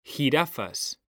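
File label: "jirafas", Spanish, male; mekanta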